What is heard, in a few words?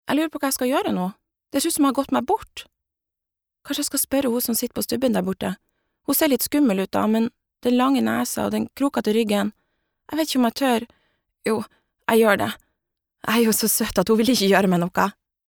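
Norwegian dub character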